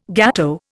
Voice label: "gateau", Indian